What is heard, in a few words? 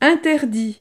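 "interdit", French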